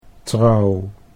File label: "trouw", Dutch